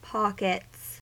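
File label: "pockets", US English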